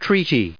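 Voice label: "treaty", English, us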